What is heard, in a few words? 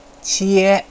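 "qie1", Chinese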